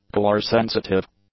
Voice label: "colour-sensitive", English; adjectives